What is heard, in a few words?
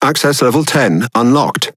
Pirate Speech Access Level; World Of Warships